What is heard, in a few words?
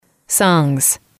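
"songs", English, female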